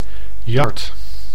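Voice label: "yard", Dutch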